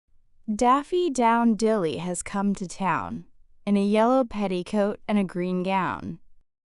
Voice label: fem us; Daffy Down Dilly